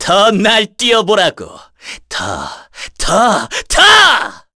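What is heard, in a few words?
Vox Skill, Korean; Ezekiel; kingsraid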